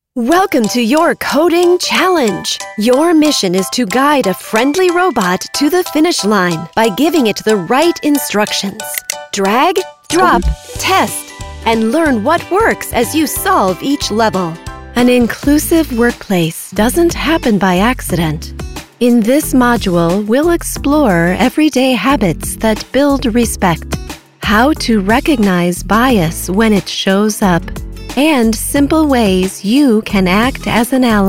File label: NARRATION